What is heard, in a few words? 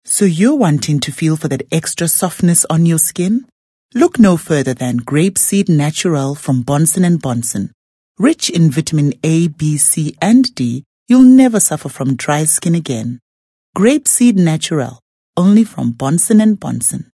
English Softsell